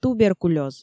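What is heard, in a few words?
"туберкулёз", Komi, female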